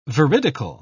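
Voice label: "veridical", British English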